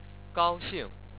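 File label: "gao1xing4", Chinese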